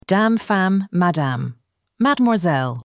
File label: women in french